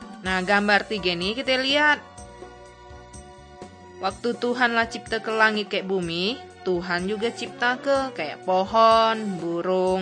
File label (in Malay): kabar baik good news malay bangka